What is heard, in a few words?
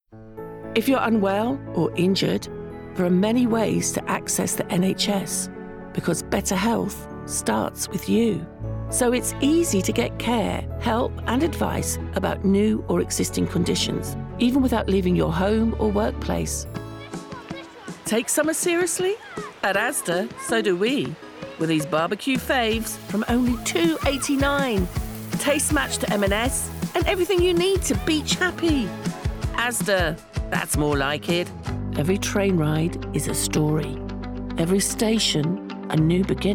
Commercial Montage